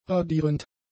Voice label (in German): "radierend", German; adjectives